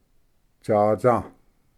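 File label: "tsatsa", Cherokee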